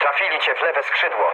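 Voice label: left wing, mood low, Polish speech; War Thunder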